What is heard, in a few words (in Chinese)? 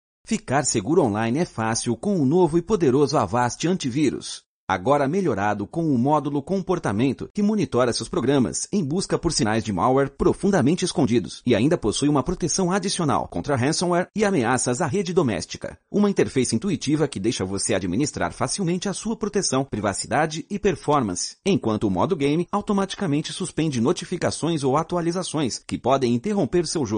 葡萄牙语男 外语 小语种